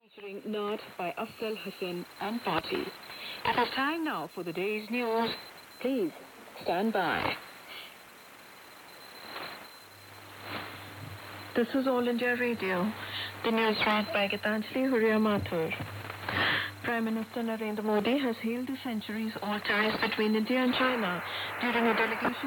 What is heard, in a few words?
7550 kHz english; All India Radio; Yaesu FT 2000; Schwarzsee